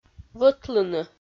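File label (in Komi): "вӧтлыны", Komi-Zyrian, female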